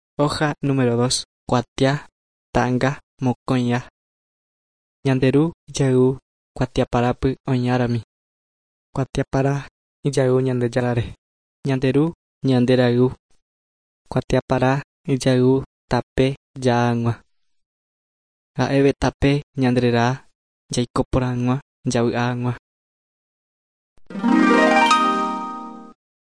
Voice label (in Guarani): guarani mbya; good news and words of life; bible